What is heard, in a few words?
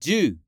Japanese Number